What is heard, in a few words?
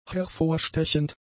"hervorstechend", German; adjectives